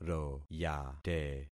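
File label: "royate", concatenated syllables; Affixing experiment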